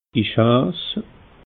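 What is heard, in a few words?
"ich heiss", Alsatian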